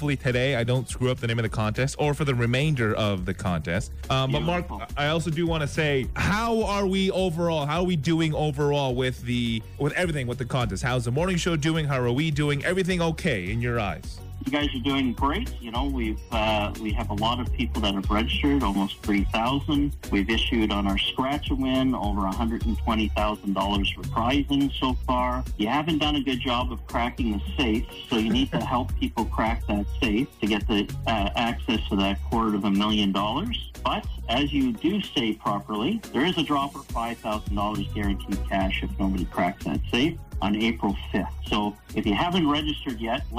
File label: Phone Call